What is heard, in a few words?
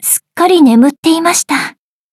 upgrade, ja; cookie run